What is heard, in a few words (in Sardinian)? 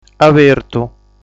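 "Abertu", Sardinian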